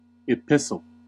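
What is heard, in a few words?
"epistle", US English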